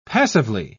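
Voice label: "passively", British English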